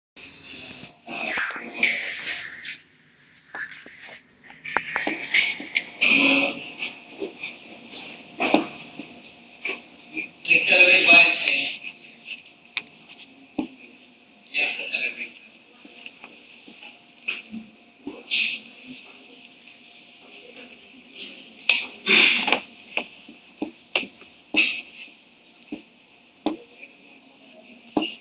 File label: school of stat lecture